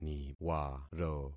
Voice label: "niwaro", concat syllables; Affixing experiment